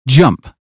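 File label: "jump", British phonetics; 英语音标发音（剑桥版）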